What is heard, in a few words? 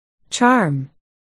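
"charm", spoken word, articulation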